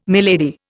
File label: "milady", Indian